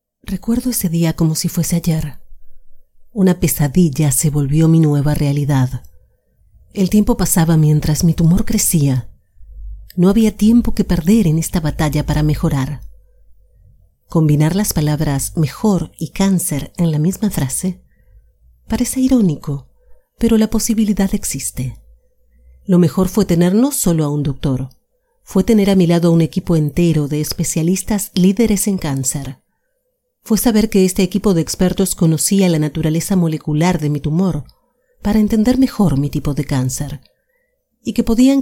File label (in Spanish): publi neutro Institucional